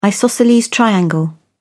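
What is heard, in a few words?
"isosceles triangle", British English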